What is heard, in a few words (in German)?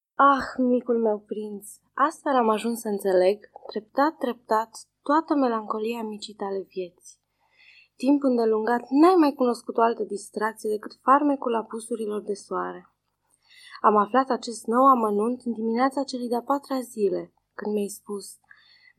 Rumaenisch Brasov